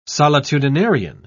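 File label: "solitudinarian", English, British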